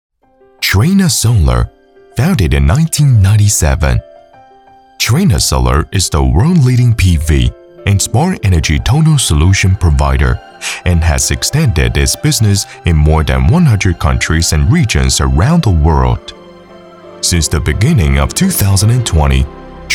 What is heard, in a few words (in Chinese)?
英文专题企业介绍